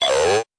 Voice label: "oh" robot

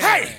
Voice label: "hey" male

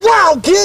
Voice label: "wow dude"

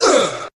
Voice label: "uh" group of men

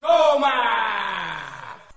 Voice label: "oh my", male; ooh ahh

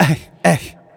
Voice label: hey hey male

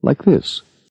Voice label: "like this", male

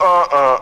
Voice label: "uh uh", Voice; ooh ahh; uh um

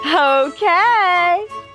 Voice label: "okay", expletives

